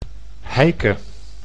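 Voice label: "Heike", Dutch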